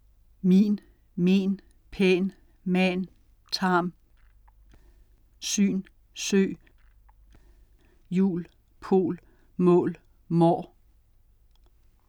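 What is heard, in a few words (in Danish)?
vokal med stød; 2.2.1 Monoftonger; min, men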